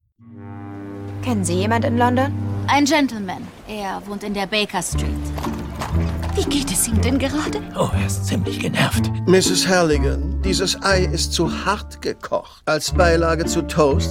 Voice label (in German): Synchron, maenner; Sherlock und Daughter